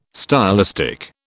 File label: "stylistic", British